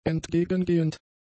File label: "entgegengehend", German; adjectives